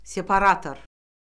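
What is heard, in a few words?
"separator", Azerbaijani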